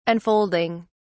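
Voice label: "unfolding", English, uk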